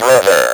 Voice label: "BROTHER", Speak & Spell